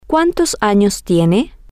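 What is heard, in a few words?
how old are you, spanish, female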